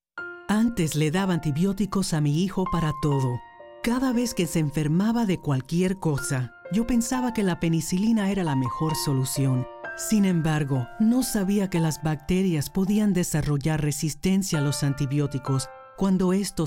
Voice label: Spanish; drugresistance; Radio PSAs

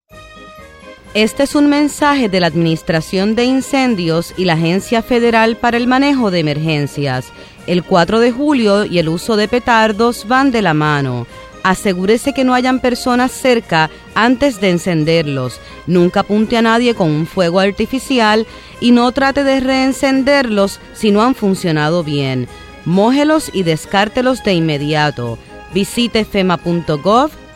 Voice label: PSA ESP Fireworks

